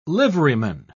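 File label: "liveryman", British English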